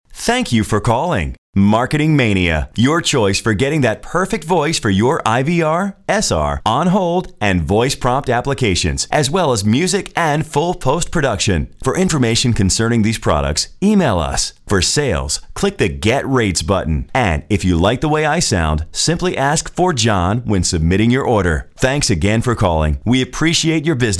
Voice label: Voice Sample, onhold